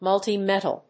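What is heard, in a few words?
"multi-metal", English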